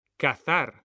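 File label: "cazar", Spanish, male; mekanta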